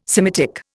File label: "Semitic", Indian